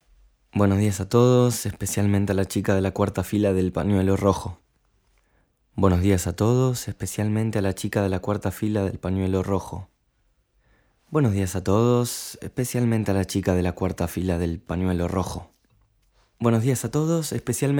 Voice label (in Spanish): Tradu Crud, Espanol